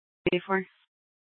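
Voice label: Police Department Dispatch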